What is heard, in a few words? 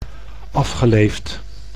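"afgeleefd", Dutch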